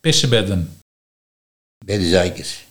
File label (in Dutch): "Beddezaaikers", Nieuw Lekkerlands dialect